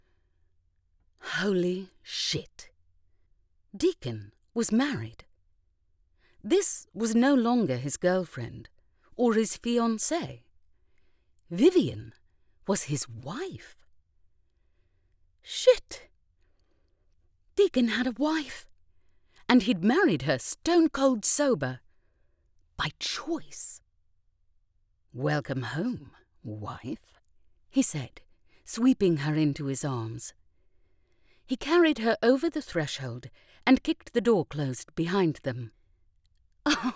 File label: British Accent Romance MF Dialogue